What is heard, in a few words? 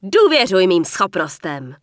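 Human Female Hello, Czech; wowpreklad; czwow; HumanVocalFemale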